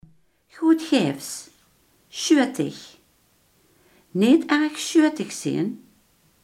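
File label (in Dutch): gul, Limburgse gesproken woordenboeken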